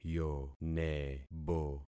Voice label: "yonebo", concat syllables; Affixing experiment